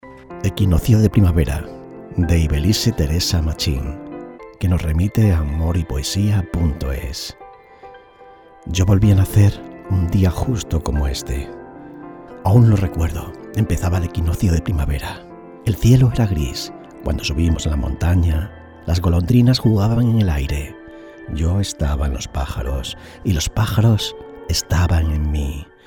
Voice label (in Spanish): poema romantico, Poemas recitados; equinoccio